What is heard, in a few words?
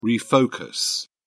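"refocus", English, uk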